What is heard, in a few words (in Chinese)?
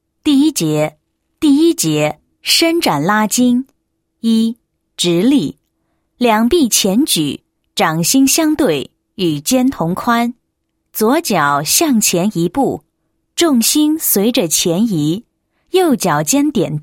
女, 解说词; 拉筋操解说